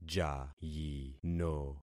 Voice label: "jayino", concat syllables; Affixing experiment